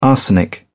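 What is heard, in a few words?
"arsenic", British English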